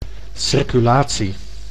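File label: "circulatie", Dutch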